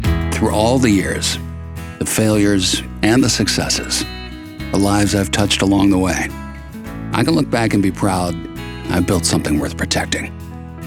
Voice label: commercial men

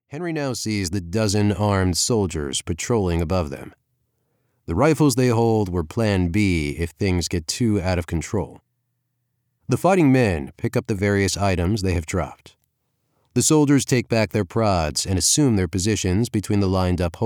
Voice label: audiobooks men thriller

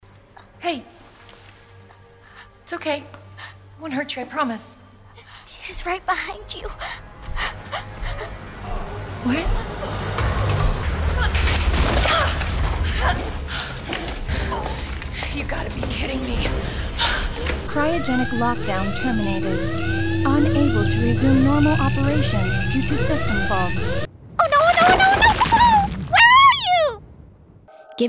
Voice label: animation women interactive

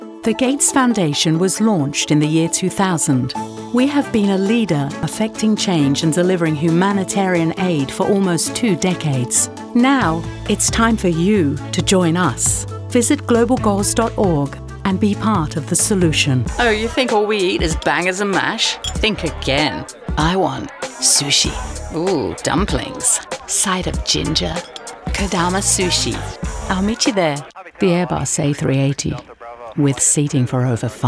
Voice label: commercial female; commercial women

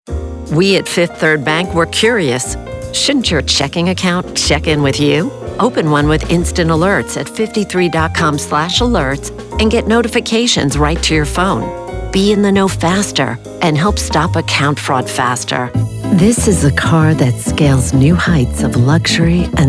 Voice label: commercial women

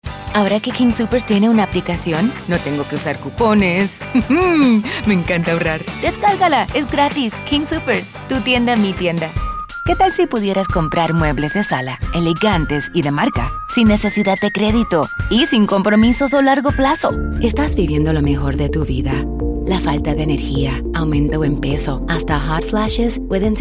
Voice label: languages and accents women spanish

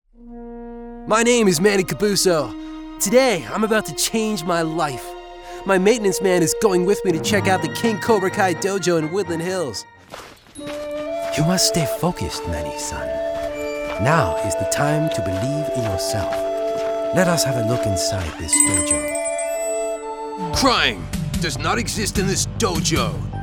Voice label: animation men, voices men

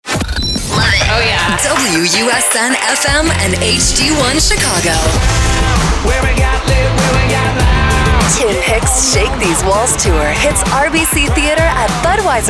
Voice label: radio women country; radio imaging

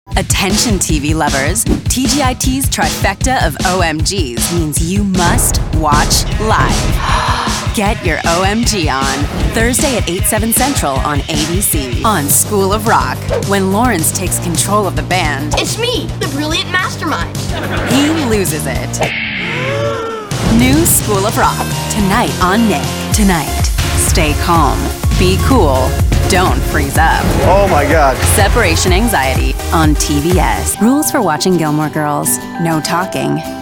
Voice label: promo female entertainment

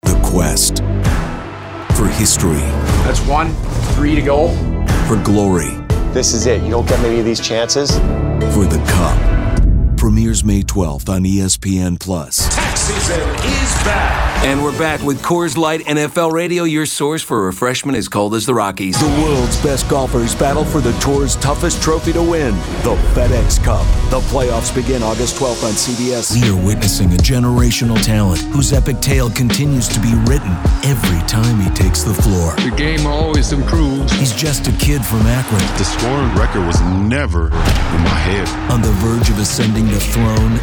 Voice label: promo men sports

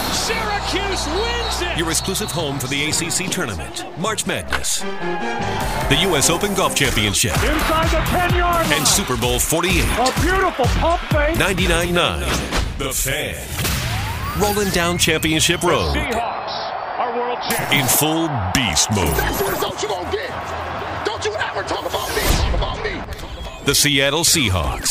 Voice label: radio sports, radio imaging

